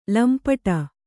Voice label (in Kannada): "ಲಂಪಟೆ", kannada